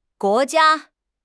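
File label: "guo2 jia1", Chinese; HSK; HSK3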